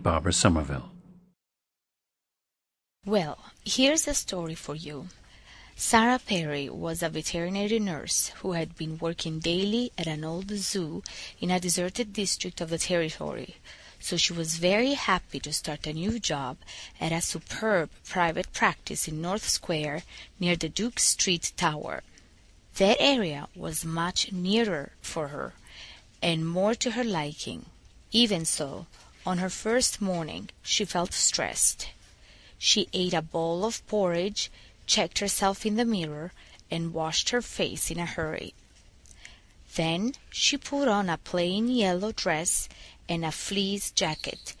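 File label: italy, International Dialects of English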